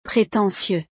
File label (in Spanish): "pretentieux", frances; estados animo